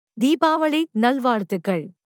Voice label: Tamil Happy Diwali; Greetings